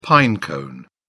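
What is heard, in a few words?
"pine cone", British English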